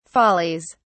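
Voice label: "follies", British English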